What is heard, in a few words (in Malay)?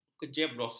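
"kejeblos", Malay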